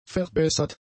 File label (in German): "verbessert", German; adjectives